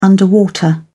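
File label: "underwater", British English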